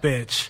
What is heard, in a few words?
"bitch", expletives